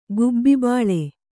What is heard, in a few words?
"ಗುಬ್ಬಿಬಾಳೆ", kannada